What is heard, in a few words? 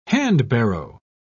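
"handbarrow", British English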